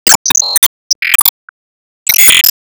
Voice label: Emo Warcry